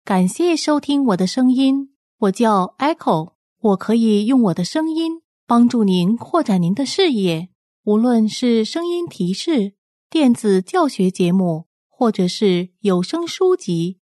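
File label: Chinese, female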